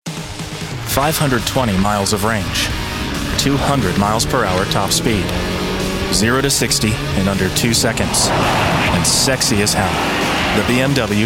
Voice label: Automotive, voiceover